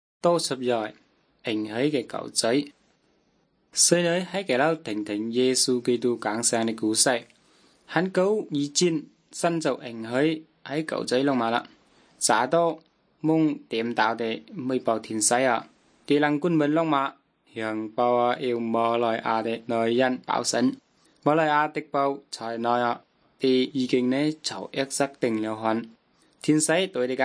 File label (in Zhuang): good news zhuang nanning fushu